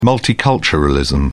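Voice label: "multiculturalism", English, British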